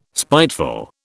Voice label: "spiteful", British